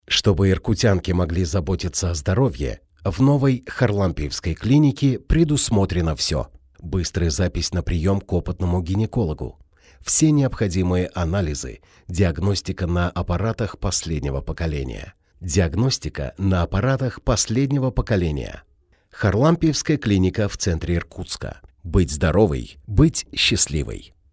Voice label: presentation